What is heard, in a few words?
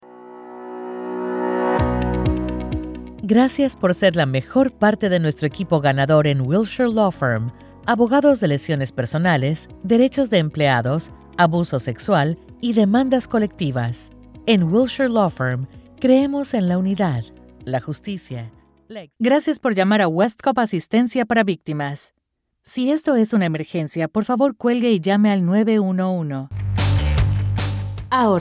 Spanish, voice